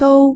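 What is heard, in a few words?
"dou1", Cantonese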